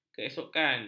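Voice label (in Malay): "keesokan", Malay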